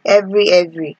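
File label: "every every", Nigerian Pidgin, female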